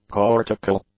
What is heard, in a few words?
"cortical", English; adjectives